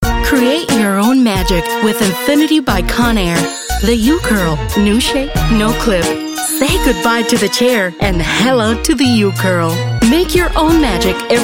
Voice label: English Comercial